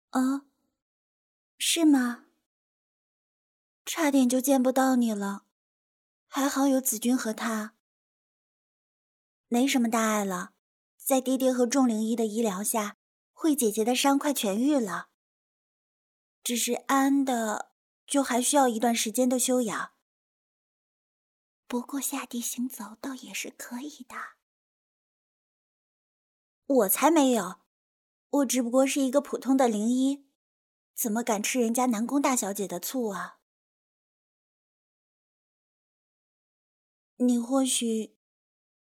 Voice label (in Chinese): 少女音